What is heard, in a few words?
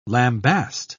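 "lambast", British English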